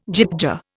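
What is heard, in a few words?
"gyttja", Indian English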